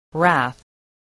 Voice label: "wrath", voice, english